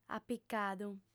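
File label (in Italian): "apicadu", sarda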